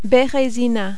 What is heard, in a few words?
"berezina", French